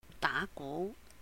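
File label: "dá gú", Cantonese; to play drum (percussion); 打鼓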